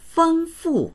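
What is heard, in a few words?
"feng1fu4", Mandarin Chinese; 丰富; 豊富である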